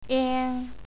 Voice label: "eng", Cantonese

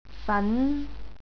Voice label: "fan2", Cantonese

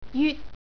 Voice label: "yut", Cantonese

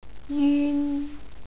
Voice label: "yun", Cantonese

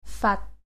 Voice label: "fat6", Cantonese

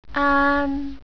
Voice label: "aan", Cantonese, jyutping

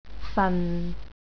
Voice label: "fan4", Cantonese

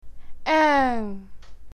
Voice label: "oeng", Cantonese

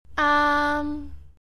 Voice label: "aam", Cantonese, jyutping

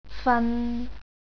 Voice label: "fan3", Cantonese

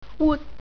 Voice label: "wut", Cantonese

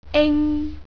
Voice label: "ing", Cantonese

